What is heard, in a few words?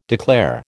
"declare", American